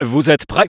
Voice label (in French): battle cry